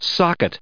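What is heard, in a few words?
"socket", US English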